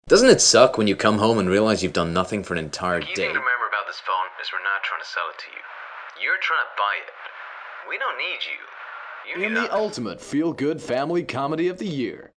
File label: American samples